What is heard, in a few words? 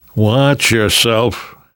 warn careful, namvet, survivor voice; Left 4 Dead 2; left4dead2 dlc3